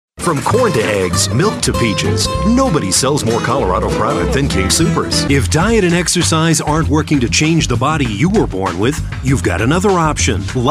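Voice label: commercial, male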